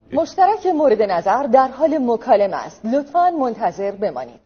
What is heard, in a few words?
operator voice talking